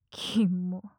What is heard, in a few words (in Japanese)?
きも・強く・近・左, ASMR; マゾオスのあなたに敗北射精の快楽を叩き込む音声